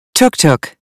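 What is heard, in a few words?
"tuk tuk", English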